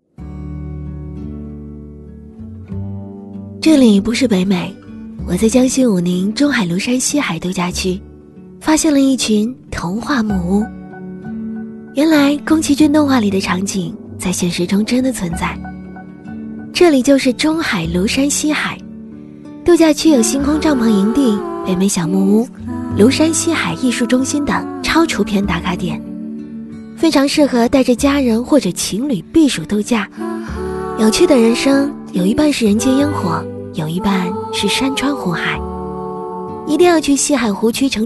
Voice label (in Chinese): 女 旁白 抖音素人 景点解说